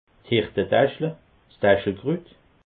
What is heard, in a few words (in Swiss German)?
"hirtetaschle", alsace